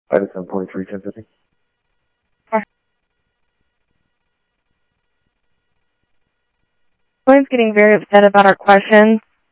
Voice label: Sheriff, scanner audio

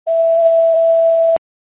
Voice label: FD Dpts VHF 156.240 Patch

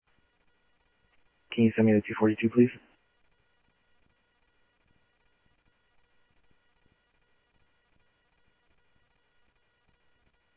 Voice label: South Scottsdale Sheriff, scanner audio

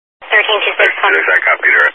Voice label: Highway Patrol, Phoenix Metro East Area, scanner

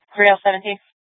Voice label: Dispatch; Scottsdale PD; Nth Dispatch

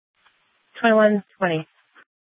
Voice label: Sheriff, scanner P25; Shrf Lakes

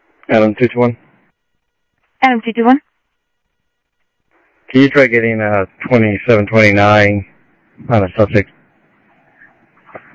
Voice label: Info Sheriff Common, scanner audio trunk

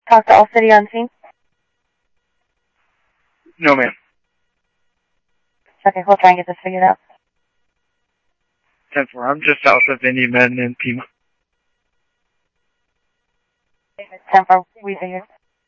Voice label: Scottsdale Police, scanner

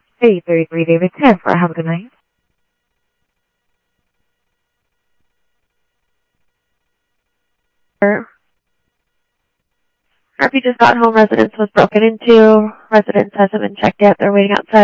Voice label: Sheriff Central, scanner audio trunk

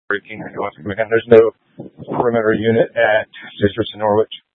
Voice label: Mutual Aid-Intersystem Interop B, scanner

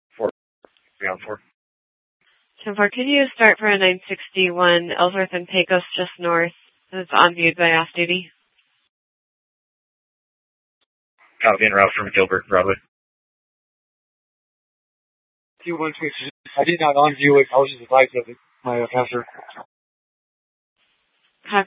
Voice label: Dispatch, scanner

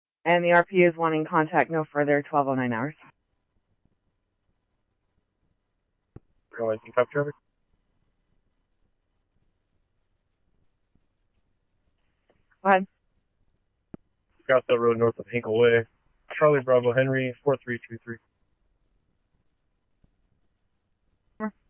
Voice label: scanner, Scottsdale Police

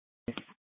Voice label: Scottsdale Police, Simulcast

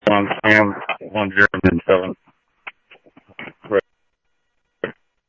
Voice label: Tempe Police, scanner; SimulcastF-Tempe; TEMPE PD A04

